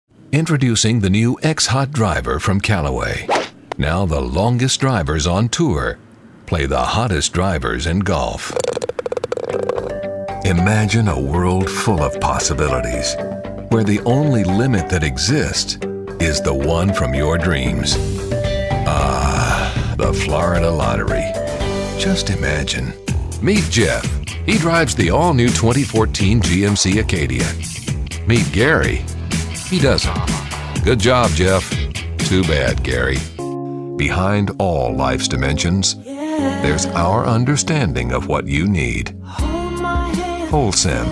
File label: Commercial